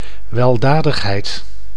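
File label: "weldadigheid", Dutch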